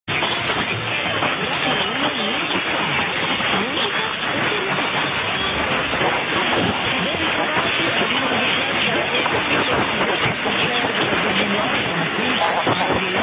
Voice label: 1575 RAI Radio 1 Italy ID